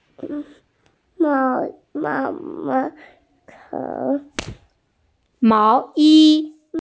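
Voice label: "máo yī", Mandarin Chinese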